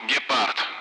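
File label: Speech, Russian; Allied Flight; AirDefence; Gepard; DCS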